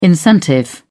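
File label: "incentive", British English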